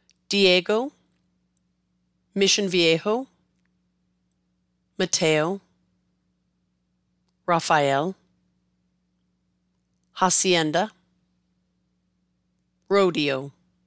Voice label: Diego to Rodeo, pronounce spanish words used in english